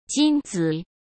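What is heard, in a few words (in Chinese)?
"金子", Mandarin Chinese; chinese flashcards; hsk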